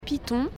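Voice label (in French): "python", prononciation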